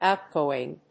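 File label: "outgoing", English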